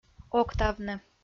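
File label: "октавны" Komi-Zyrian, female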